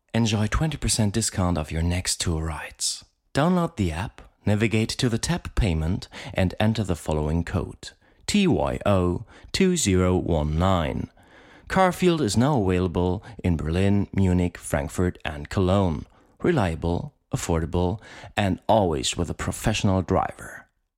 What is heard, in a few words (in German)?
Werbung Englisch